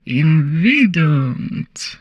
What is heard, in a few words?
humanoid female; Stellaris; humanoids